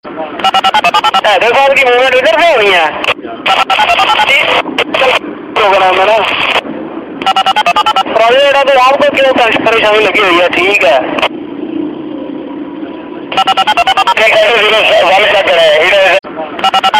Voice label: police wireless voice